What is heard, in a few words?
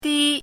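"dī", Chinese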